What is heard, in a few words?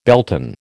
"Beltane", American